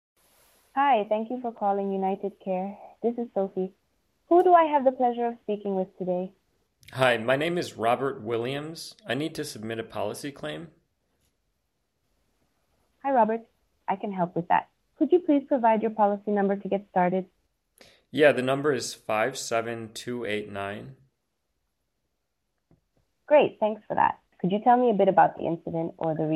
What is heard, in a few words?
1s delay Mono Recording